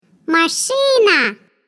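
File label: RUS car, Russian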